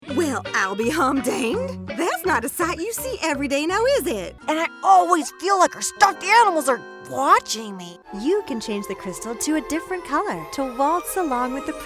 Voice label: Character